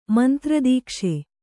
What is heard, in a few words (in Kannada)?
"ಮಂತ್ರದೀಕ್ಷೆ", Kannada